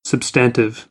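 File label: "substantive", American, English; AmericanBritish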